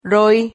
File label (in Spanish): "roi", Silabas